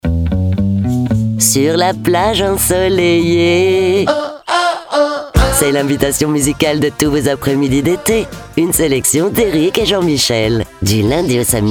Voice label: Radio Liner; Plage ensoleillee

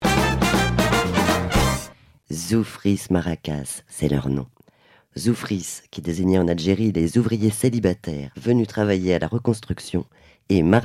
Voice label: Radio emission

